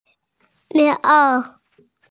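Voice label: "pi2ao3" Mandarin Chinese, female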